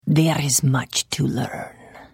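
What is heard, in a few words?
Idle, Khajiit female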